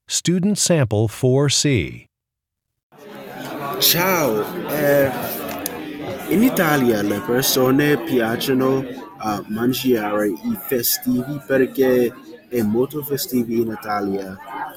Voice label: Italian; Student Sample 4C

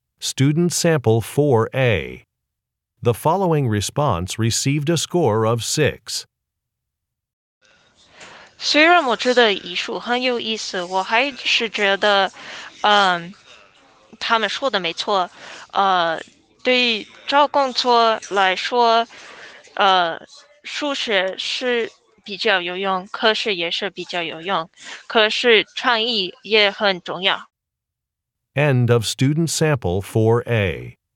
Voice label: chinese conversation

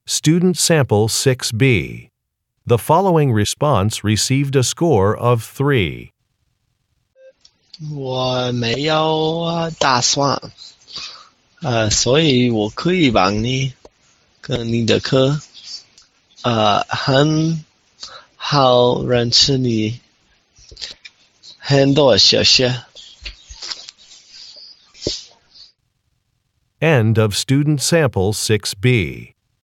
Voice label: chinese conversation; Conversation 6 Sample B

